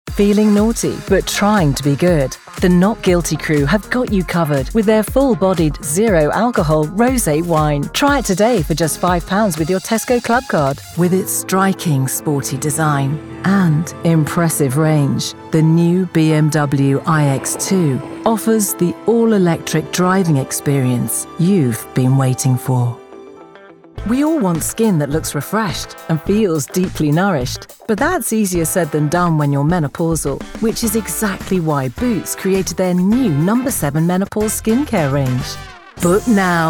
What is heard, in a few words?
commercial, english female voices british accent